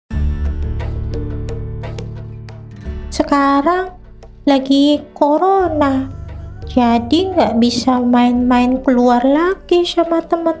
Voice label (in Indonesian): INDONESIA CHARACTER